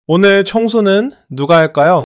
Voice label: neutral